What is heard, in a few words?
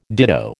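"ditto", American English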